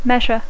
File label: "measure", English